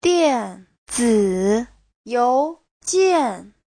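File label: "diànzǐ yóujiàn", Mandarin Chinese; 电子邮件; email; Giáo trình chuẩn HSK3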